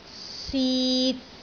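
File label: "sit3", Cantonese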